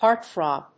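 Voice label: "heartthrob", English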